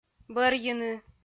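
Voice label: "бӧрйыны", Komi-Zyrian, female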